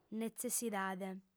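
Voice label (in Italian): "netzessidade", lingua sarda, fem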